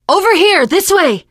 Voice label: follow me, teen girl; survivor voice